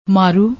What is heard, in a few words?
"maaroo", Punjabi; ਮਾਰੂ